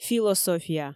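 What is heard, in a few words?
"философия", Komi, female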